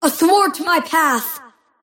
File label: kid attack pain; invoker